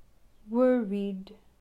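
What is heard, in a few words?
"Worried", English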